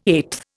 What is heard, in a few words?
"eighth", Indian